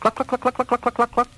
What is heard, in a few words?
cluck cluck cluck cluck cluck, Voice